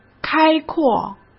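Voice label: "开阔", Mandarin Chinese; hsk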